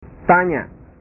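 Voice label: "tanya", Indonesian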